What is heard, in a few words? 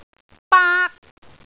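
"baak1", Cantonese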